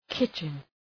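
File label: "kitchen", English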